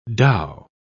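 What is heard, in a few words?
"dhow", British English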